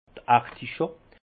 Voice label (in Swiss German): "articho", Alsace; vin; artichaud